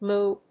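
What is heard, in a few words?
"moo", English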